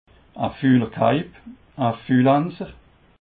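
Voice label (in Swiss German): "fulerkeib", Alsatian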